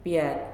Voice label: "Say", Nalik